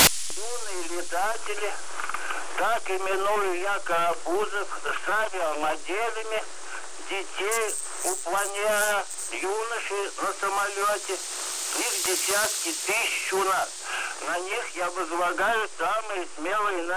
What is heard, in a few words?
male